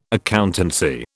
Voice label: "accountancy", British